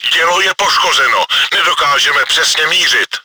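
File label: gun damaged, чешской озвучки; Dělo je poškozeno, nedokážeme přesně mířit.; Czech; Орудие повреждено, мы не можем точно сводиться.; World of Tanks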